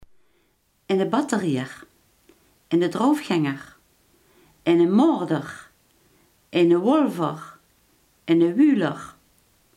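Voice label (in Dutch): iemand die hard werkt, Limburgse gesproken woordenboeken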